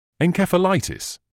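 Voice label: "encephalitis", British English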